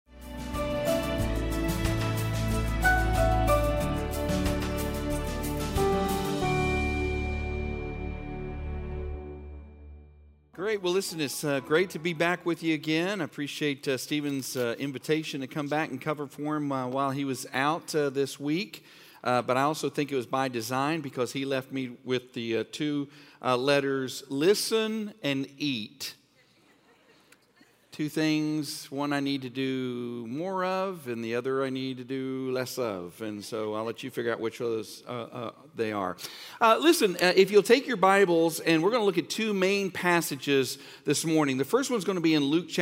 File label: Sermon English